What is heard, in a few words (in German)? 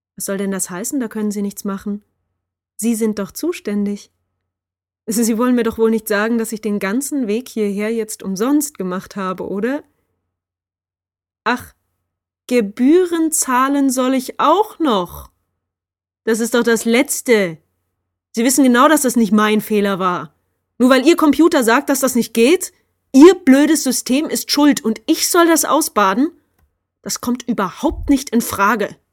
Audio verärgert